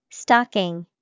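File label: stocking, English vocabulary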